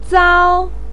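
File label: "zao1", Mandarin pinyin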